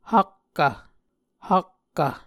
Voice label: "hakkv", Muscogee; A Dictionary of Creek/Muskogee; SIL International

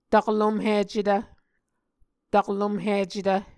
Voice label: "taklomhicetv", Muscogee

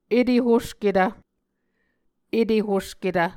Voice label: "etehosketv", muscogee

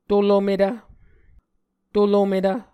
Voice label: "tolometv", Muscogee

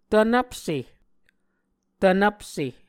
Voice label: "tvnvpse", Muscogee

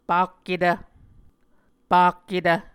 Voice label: "pakketv", Muscogee; A Dictionary of Creek/Muskogee; SIL International

